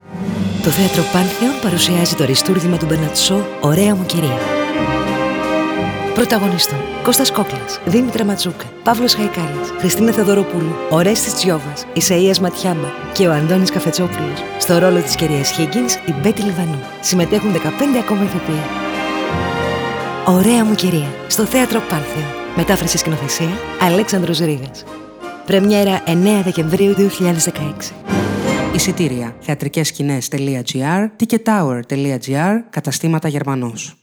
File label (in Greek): RADIO SPOT; ΩΡΑΙΑ ΜΟΥ ΚΥΡΙΑ